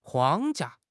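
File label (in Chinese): "框架", Chinese; HSK6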